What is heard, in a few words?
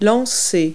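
"throw", verb; Multi-Lingual Talking Picture Dictionary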